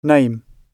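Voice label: "name", British English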